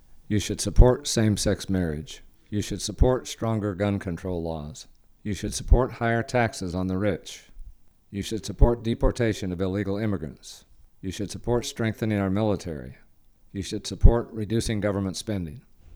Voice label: male LOW, voice pitch; voice pitch 2018